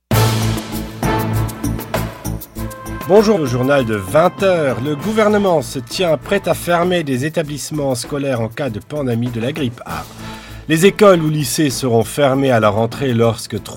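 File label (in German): French, Kommentar Neutral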